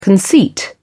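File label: "conceit", British English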